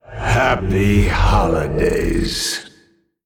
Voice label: Male Old God happy holidays

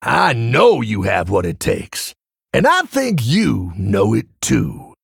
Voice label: Male Tauren

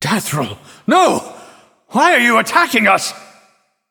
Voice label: Male Nightborne

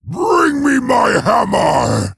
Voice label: Male Orc Hero Power

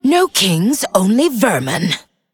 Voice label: Female Undead

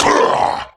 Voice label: Male Mech Attack

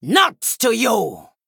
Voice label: Female Troll Attack

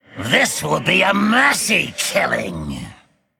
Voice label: male undead, Play

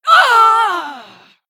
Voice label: Female Human Death

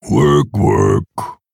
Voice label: Male Orc

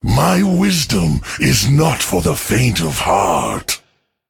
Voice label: Male Drust Emote Start

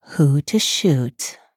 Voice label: Thinking

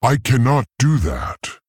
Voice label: Male Tauren ERROR PLAY

